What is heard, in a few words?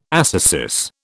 "ascesis", British